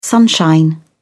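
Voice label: "sunshine", English, uk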